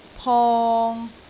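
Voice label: "pong3", Cantonese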